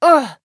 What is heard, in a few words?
pain; raze